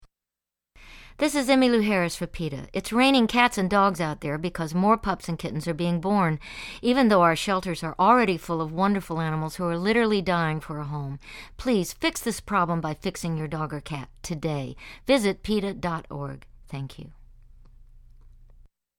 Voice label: Spayed Neuter PSA; Emmylou Harris

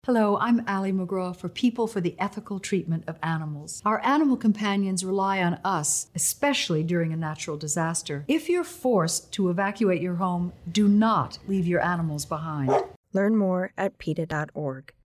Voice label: evacuation radio spot; ali macgraw

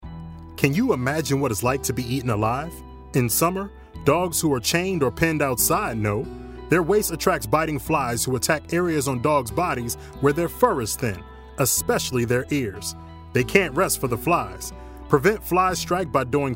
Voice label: radio psa gospel; flystrike; peta

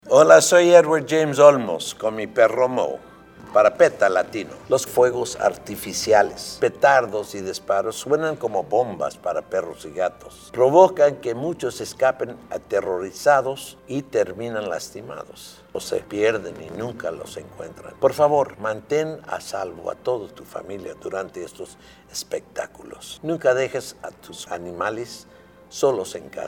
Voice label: spanish psa; edward james olmos; fireworks; peta latino

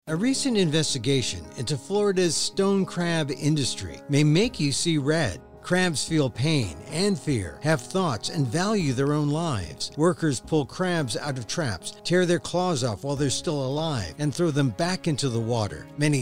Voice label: radio ad; stone crab

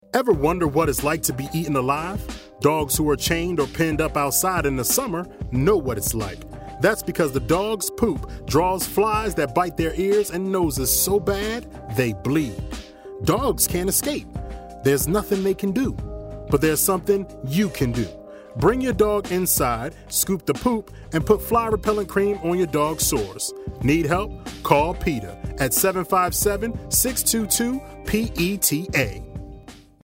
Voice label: radio psa hip hop; flystrike; peta

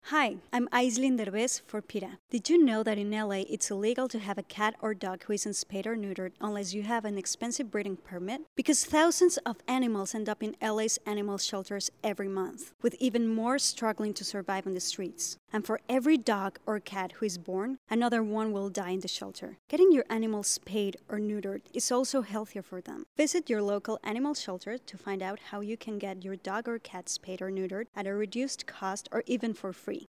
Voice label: radio psa english; aislinn derbez; angels for animals; peta latino

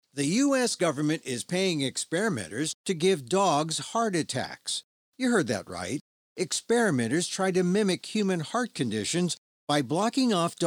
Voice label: radio ad; dog heart experimentation; peta

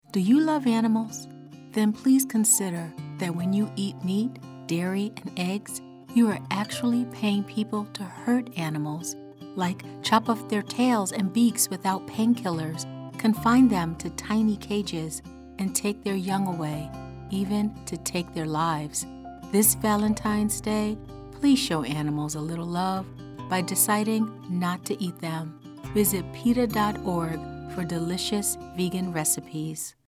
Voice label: radio spot female; valentines day 2017

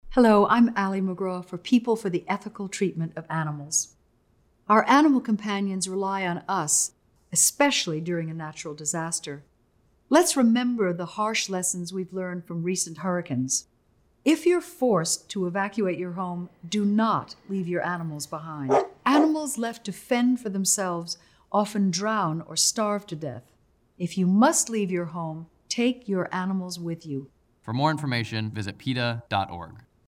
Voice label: evacuation radio psa; ali macgraw; peta

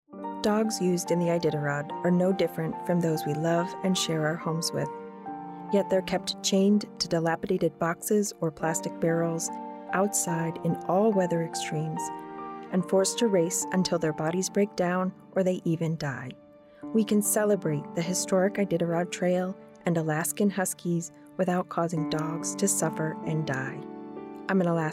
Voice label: radio spot; alaska

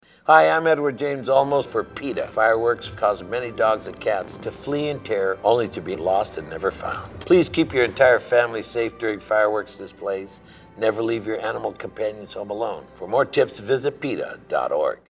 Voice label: english psa; edward james olmos; fireworks; peta latino

